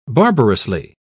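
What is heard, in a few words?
"barbarously", British English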